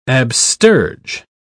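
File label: "absterge", British English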